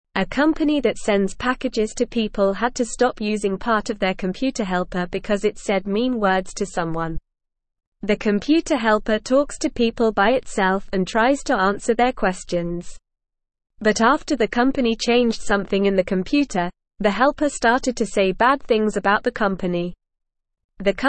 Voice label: English Newsroom Beginner NORMAL Reading